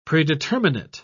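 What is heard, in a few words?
"predeterminate", British English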